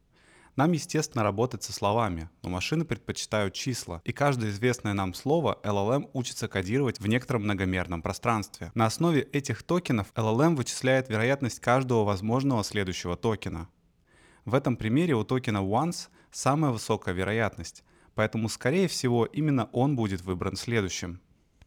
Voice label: example ru